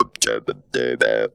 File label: "OHBIBE", funky vocals; USB Soundscan vol.18; AKAI; TB MELODY